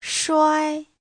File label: "shuai1", Chinese; 摔; rơi, ném, quăng